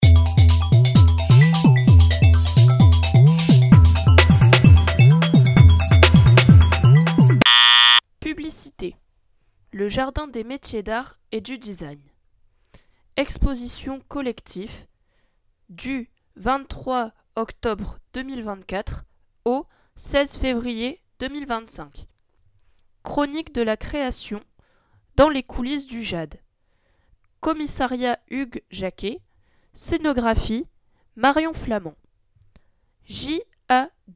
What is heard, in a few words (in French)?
publicite; Buzzz